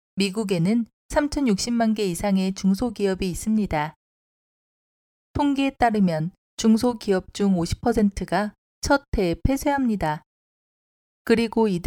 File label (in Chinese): 韩语女 自然介绍